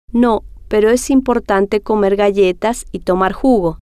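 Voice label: no Peror Es Importante Comer Galletas Y Tomar Jugo, Spanish, female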